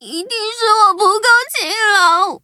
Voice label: defeat, zh-hant; cookierunwiki